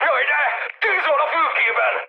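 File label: Hungarian on fire mood high, speech; War Thunder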